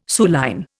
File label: "suilline", Indian